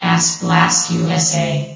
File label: vox test, vox fem